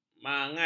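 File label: "mangan", Malay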